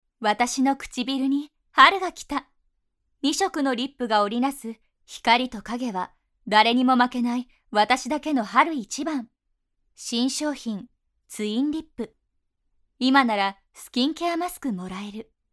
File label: female; 化粧品のCM